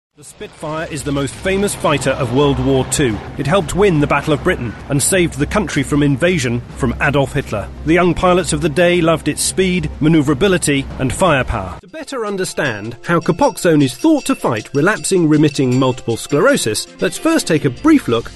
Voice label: Narration

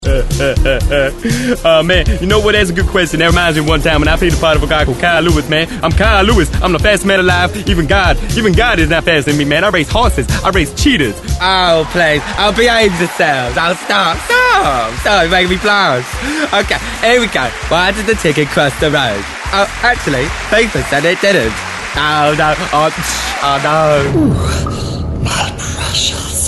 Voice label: impressions

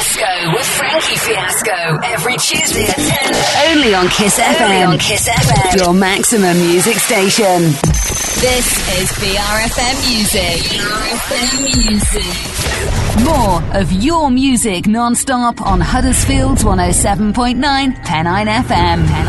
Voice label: radio imaging

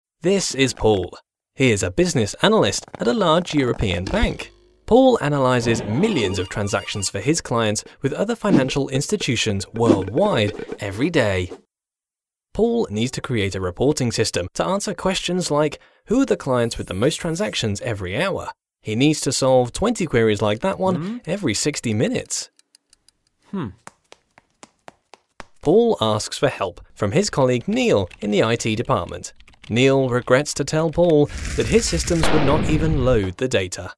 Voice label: friendly

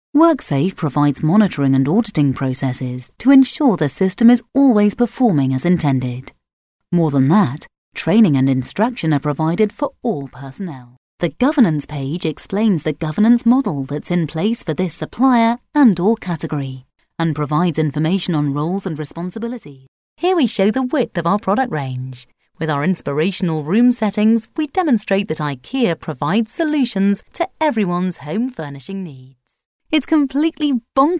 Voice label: Corporate

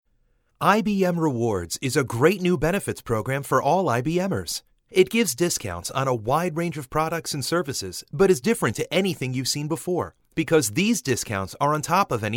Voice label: american

